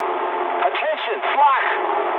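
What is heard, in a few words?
damaged anti-aircraft mood medium, speech English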